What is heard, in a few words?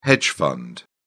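"hedge fund", British English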